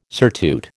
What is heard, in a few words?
"surtout", American